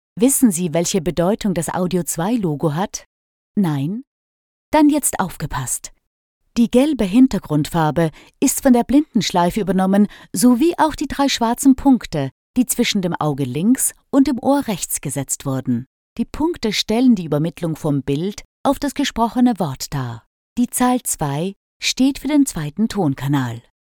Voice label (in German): Hochdeutsch Oesterreichisches Deutsch; sprechproben